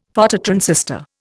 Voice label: "Phototransistor", Indian; Dictionary

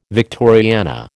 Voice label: "Victoriana", American

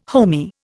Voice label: "homey", Indian

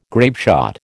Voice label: "grapeshot", American

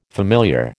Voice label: "familiar", American

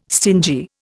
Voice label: "stingy", Indian

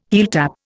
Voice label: "heeltap", Indian

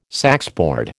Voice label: "saxboard", American

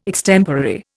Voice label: "extemporary", Indian

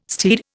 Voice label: "steed", Indian

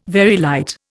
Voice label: "Very light", Indian

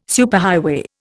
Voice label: "superhighway", Indian

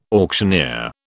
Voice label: "auctioneer", British

